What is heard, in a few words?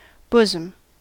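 "bosom", US English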